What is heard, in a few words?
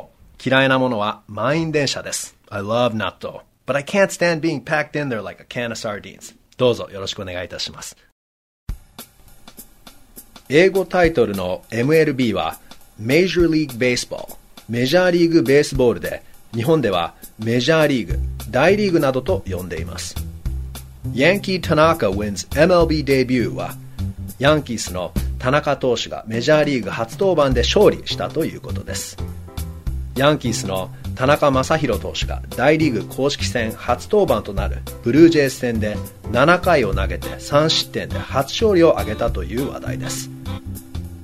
japanese, narrator USA M